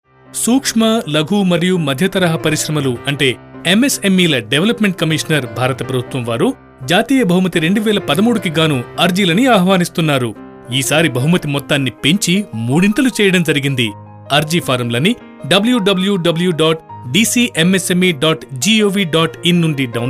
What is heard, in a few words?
Telugu male